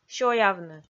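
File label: "сёявны", Komi, female; kpv audio dict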